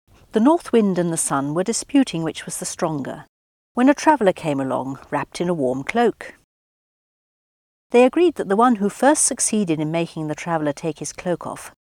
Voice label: Recording of speaker of British English (Received Pronunciation)